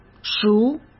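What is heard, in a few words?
"熟", Mandarin Chinese; chinese-flashcards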